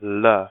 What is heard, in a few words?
la letter, hindi devanagari